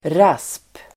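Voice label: "rasp", Swedish; rasp substantiv; Uttal: [ras:p]; Definition: lätt skrapande ljud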